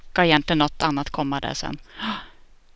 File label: ingressive